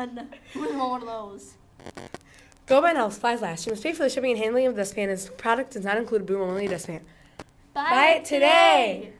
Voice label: fake ad for tropical crunch cereal